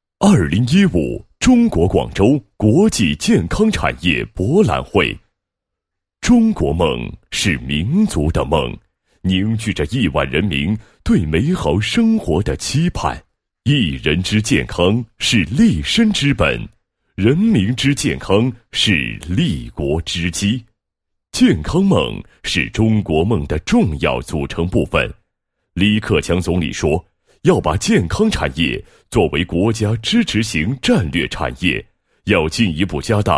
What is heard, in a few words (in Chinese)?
男 专题 国际健康产业博览会; 中国（广州）国际健康产业博览会